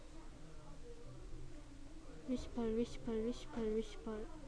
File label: whisper